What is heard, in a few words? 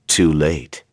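Vox Skill, voices heroes English; kingsraid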